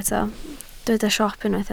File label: "siop", Welsh